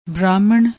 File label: "brahman", Punjabi